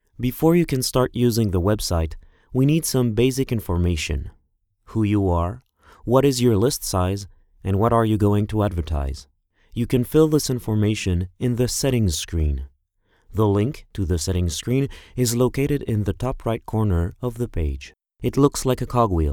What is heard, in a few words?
E-learning